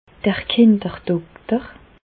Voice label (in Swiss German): "kinderdokter", Bas Rhin; medecine; pédiatre